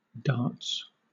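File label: "darts", British English; pronunciations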